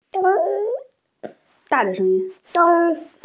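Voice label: "dong1", Mandarin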